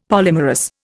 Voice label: "polymerous", Indian; Dictionary

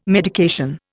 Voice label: "medication", Indian

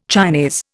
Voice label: "Chinese", Indian

